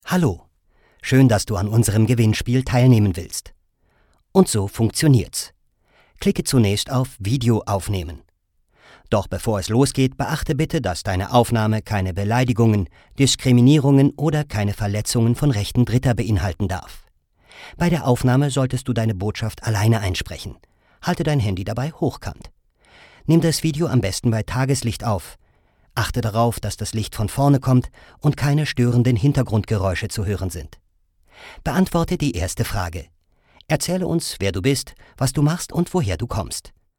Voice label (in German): Erklaerfilm